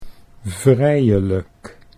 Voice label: "vrijelijk", Dutch; 'freely, liberally'

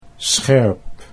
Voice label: "scherp", Dutch

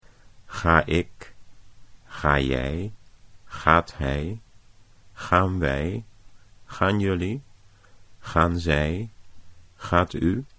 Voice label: "ga ik", Dutch

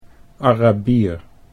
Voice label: "Arabier", Dutch

